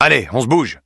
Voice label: Neutral

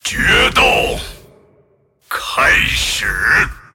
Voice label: move, zh

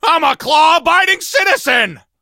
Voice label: hank hurt

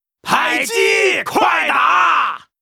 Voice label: Mortar team ready, zh

